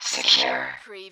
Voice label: Robot voice "secure"; lynne publishing